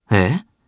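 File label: Vox Deny Japanese; Fluss; kingsraid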